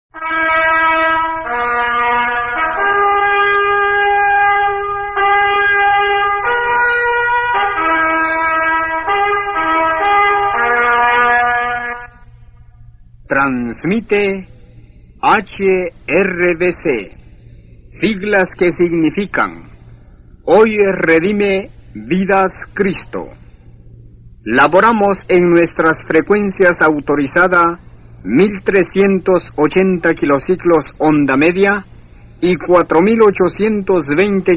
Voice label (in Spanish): La Voz Evangelica Tegucigalpa; HRVC; HND